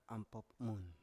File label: "ampop mun" Biak